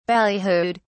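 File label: "ballyhooed", British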